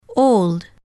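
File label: old voice over, English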